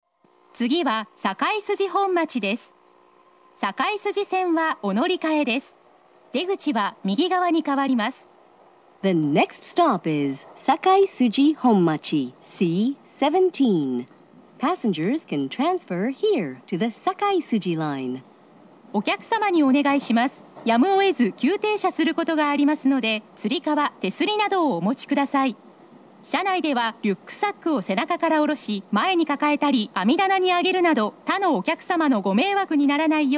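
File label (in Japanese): 大阪メトロ中央線車内放送, 次は堺筋本町+到着; 次は堺筋本町+到着(2番線)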